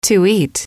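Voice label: "to eat", English, female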